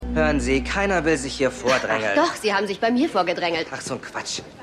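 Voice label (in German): Jüngerer Patient; New Amsterdam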